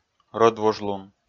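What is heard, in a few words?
"рӧдвужлун", Komi, male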